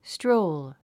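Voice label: "stroll", English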